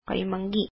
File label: "brown", filipino